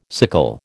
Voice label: "sickle", American